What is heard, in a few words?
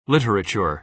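"literature", American English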